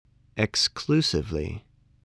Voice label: "exclusively", voice, english

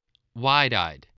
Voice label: "wide-eyed", voice, english

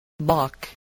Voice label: "lock", voice

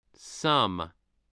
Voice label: "sum", spelling

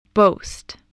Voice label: "boast", spelling

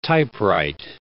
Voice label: "typewrite", English

